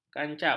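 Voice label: "kancap", Malay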